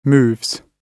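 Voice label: "moves", British English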